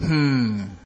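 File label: "hm", Nigerian Pidgin, male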